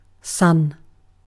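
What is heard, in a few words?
"son", British English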